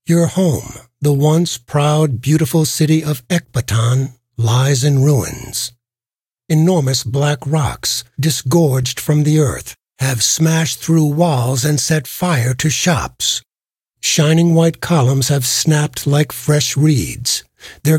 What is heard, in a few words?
narrator; Fate The Cursed King